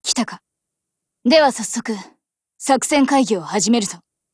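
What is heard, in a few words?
HELLO, Japanese; GF Alarm; BrenMK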